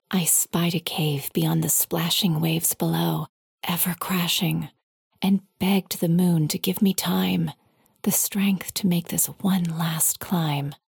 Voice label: Poetry; Spied A Cave; Xing The Land Beyond; PS4